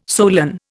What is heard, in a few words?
"solan", Indian